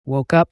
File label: "wokeup" us male; everyone-can-use-english